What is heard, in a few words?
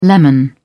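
"lemon", British English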